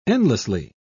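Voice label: "endlessly", British English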